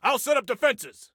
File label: Self Task Defenses, Soldier Male; Soldier Male 02